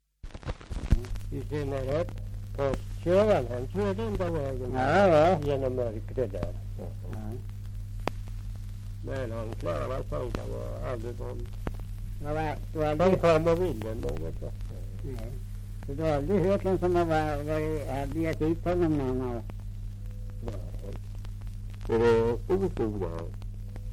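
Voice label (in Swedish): Björnlunda Södermanland, svenska sagor dialekter; Folkminnen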